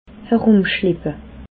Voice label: "herumschleppe", alsace

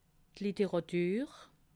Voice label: "literatur", alsace

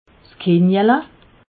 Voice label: "kinjele", alsace

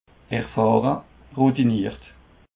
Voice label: "erfahre", Alsace

